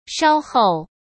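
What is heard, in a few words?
"稍后", Mandarin Chinese; hsk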